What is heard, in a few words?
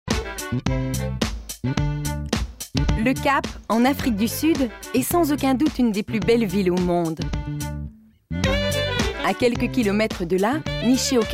narration